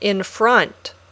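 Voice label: "in front", English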